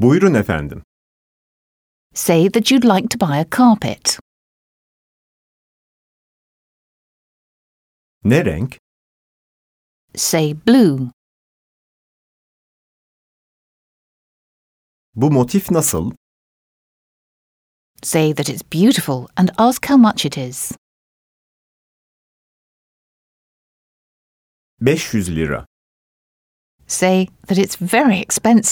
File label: Speaking, Turkish